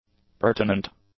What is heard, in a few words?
"pertinent", english; adjectives